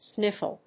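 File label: "sniffle", English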